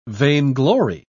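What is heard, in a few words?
"vainglory", British English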